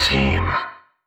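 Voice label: "team", male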